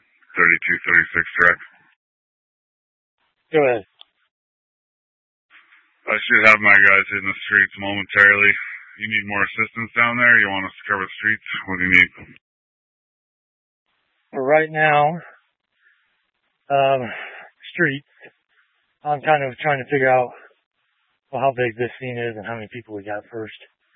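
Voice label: Police 1 Dispatch, Scanner audio recording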